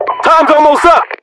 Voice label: times up, voiceovers, US; english